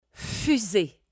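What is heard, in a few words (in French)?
"fusée", French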